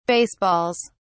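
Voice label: "baseballs", British English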